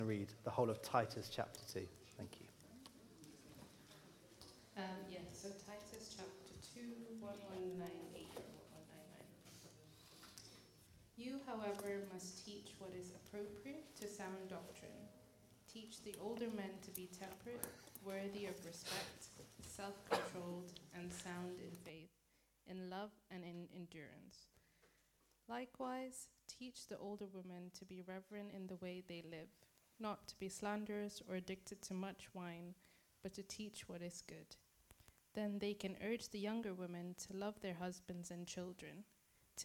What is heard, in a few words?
reading and sermon; truth transforms grace that trains us